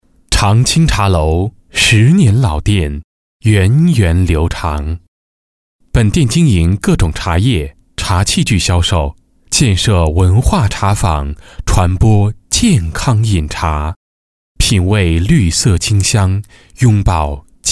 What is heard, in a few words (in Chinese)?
男 茶楼广告（亲和）